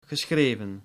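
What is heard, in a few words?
"geschreven", Dutch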